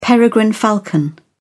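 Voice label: "peregrine falcon", British English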